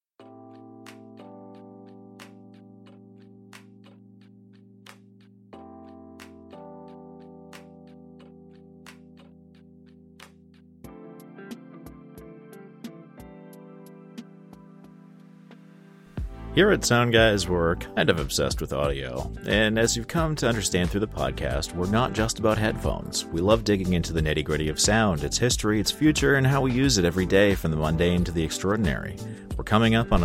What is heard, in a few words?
mic demo; HyperX Quadcast